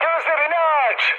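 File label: Turkish player friendly fire, mood high, speech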